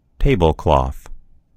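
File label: "tablecloth", American English; gcompris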